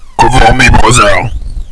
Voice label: cover, radio

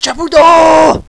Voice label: babble we have hostile

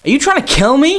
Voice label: scared

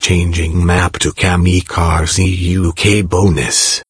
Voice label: kamikaze uk

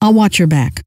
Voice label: "I'll watch back", scientist female

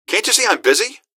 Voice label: busy, gasmask; scmod

